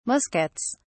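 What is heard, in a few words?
"muskets", British English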